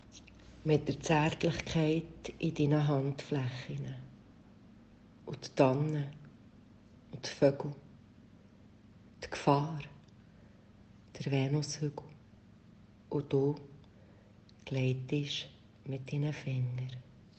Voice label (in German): Berndeutsch